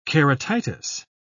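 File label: "keratitis", British English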